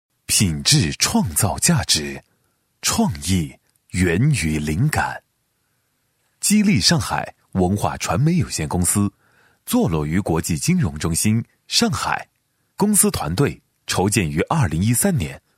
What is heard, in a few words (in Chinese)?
男 专题 激励; 上海文化传媒公司